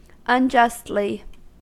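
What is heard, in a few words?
"unjustly", American English; pronunciations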